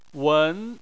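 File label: "wen", Chinese, second tone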